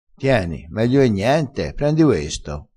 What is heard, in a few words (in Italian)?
"me lo friggo", crespinese, vocabolario dialettale